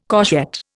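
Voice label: "courgette", Indian English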